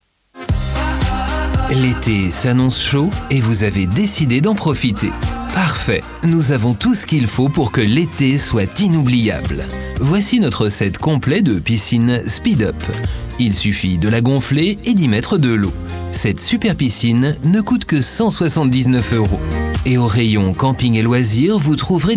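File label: French Dynamisch; Fremdtake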